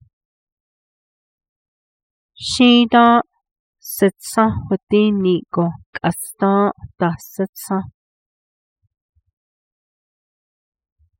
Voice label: Last summer I nearly died with a pain in my abdom, navajo adverb